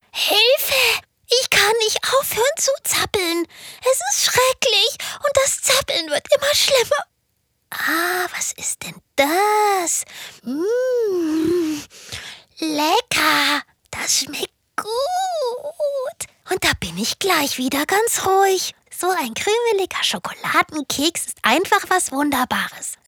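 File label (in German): Schauspiel Charge; Fremdtake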